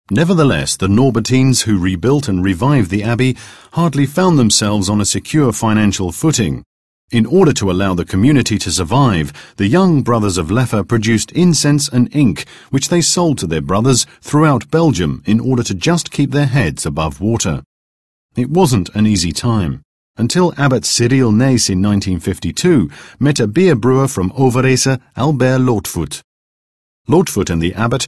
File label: Audioguide; Leffe